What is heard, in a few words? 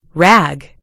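"rag", British English; gcompris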